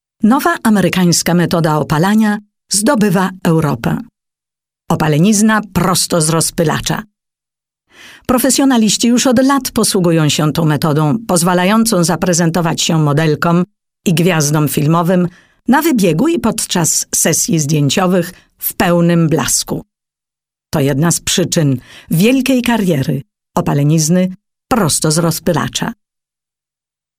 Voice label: Polen W

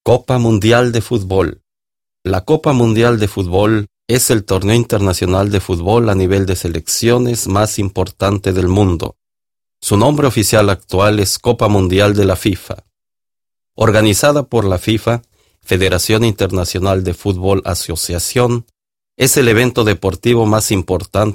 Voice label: Mexico M1, voiceactors

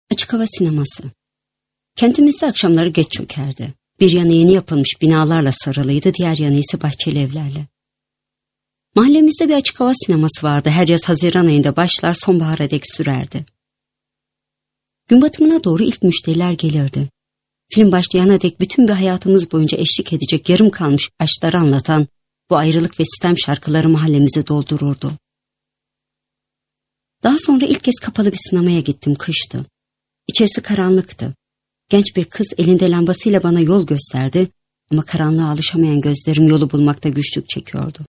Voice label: Tuerkei W

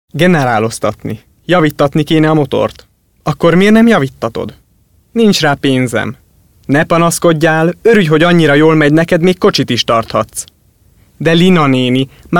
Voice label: Ungarn M

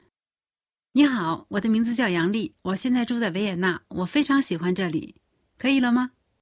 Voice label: China W

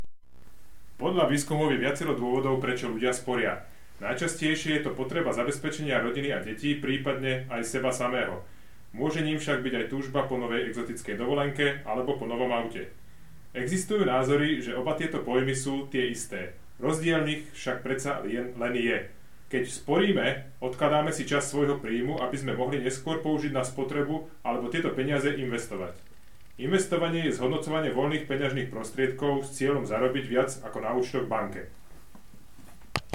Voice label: Slowakei M

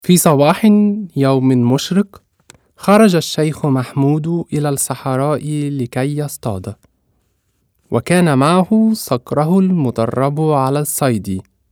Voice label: Aegypten M1, voiceactors

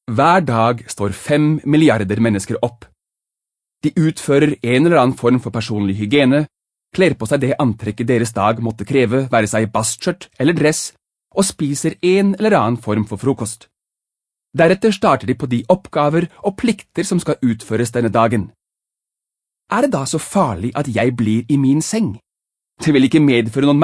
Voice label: Norwegien M1

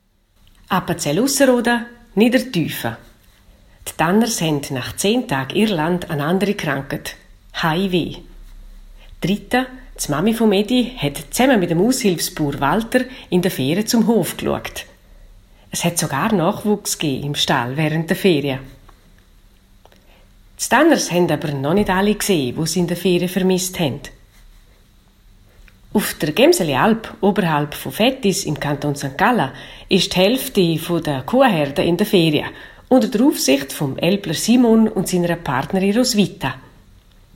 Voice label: Schweiz W

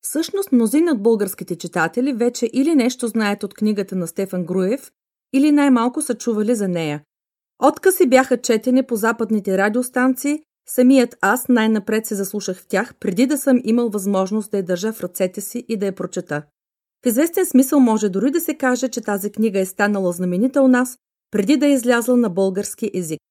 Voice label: Bulgarien W

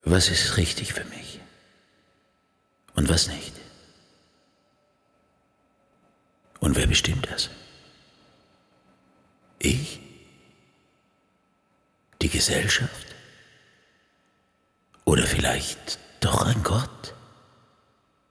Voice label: Schweiz M7, voiceactors

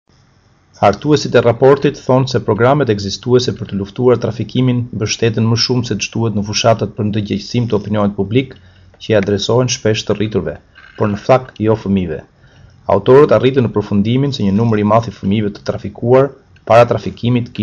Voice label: Albanien M

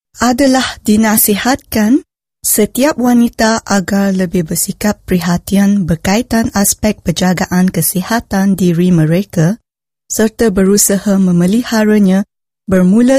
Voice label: Malaysia W1, voiceactors

